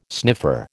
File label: "sniffer", American